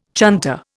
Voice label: "chunter", Indian